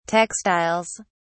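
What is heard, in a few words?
"textiles", English, British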